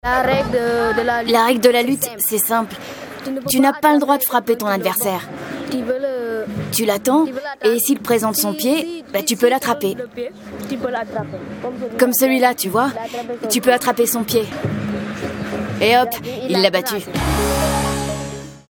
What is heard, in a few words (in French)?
VOIX DE GARÇON